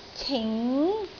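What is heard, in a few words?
"cing2", Cantonese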